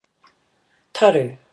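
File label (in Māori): "taru", Māori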